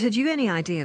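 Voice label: "haD ZU any idea", Standard Southern British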